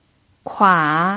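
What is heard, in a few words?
"kua" third tone, pinyin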